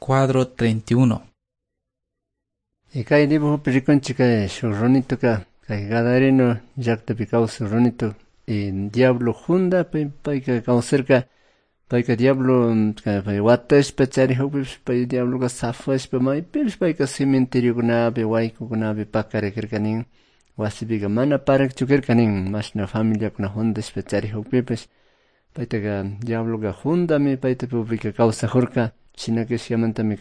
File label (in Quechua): good news quichua cayambe